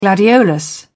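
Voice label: "gladiolus", English, British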